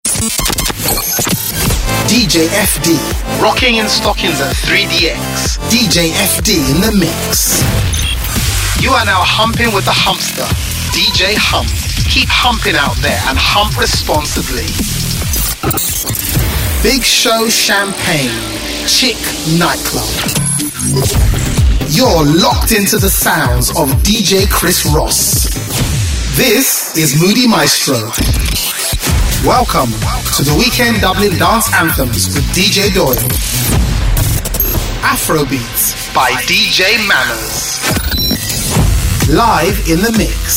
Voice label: Imaging